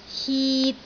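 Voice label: "hit3", Cantonese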